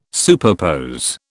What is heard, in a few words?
"superpose", British